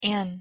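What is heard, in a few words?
"an", English pronunciation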